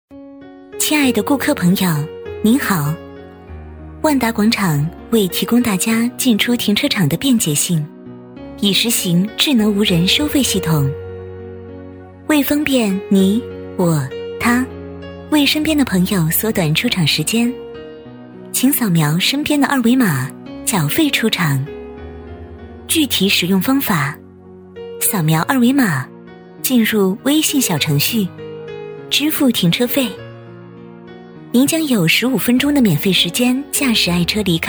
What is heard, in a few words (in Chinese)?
女 温馨提示 停车场 温馨甜美